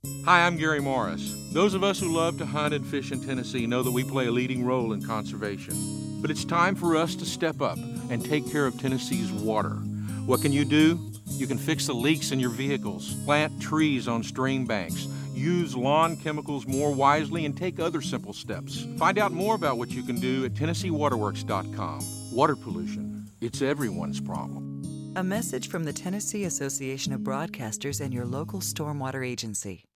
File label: radio mixed